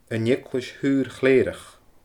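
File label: "An Eaglais Shaor Chlèireach", Scottish Gaelic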